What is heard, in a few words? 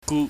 "gu", chinese